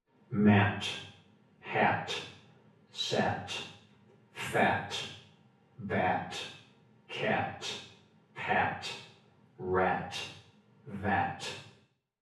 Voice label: mat hat sat, reverb; spoken rhyming words; Harrison 123 Reverberation